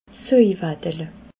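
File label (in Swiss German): "soiwaddele", Bas Rhin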